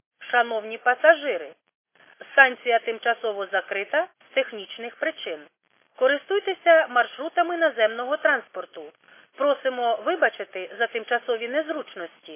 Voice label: khs st female, subway station